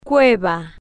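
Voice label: "cueva", Spanish, female, slow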